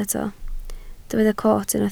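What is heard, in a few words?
"coot" Welsh, spoken word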